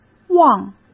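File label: "望", Mandarin Chinese; chinese-flashcards; hsk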